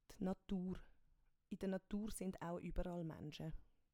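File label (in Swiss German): "d Natur", Swiss German; Wortschatz Kapitel 1; Kapitel 1 Hauptwortschatz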